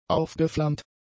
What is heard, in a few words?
"aufgeflammt", German; adjectives